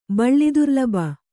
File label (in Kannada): "ಬಳ್ಳಿದುರ್ಲಬ", Kannada